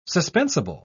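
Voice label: "suspensible", British English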